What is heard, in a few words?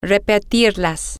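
Spanish, to repeat it feminine plural, female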